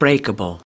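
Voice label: "breakable", US English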